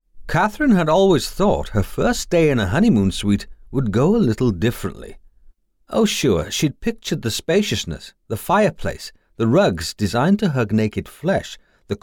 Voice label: Audiobook